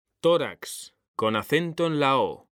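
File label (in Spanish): "tórax", Spanish; mekanta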